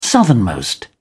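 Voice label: "southernmost", English, British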